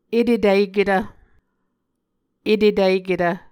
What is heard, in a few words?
"etetiketv", muscogee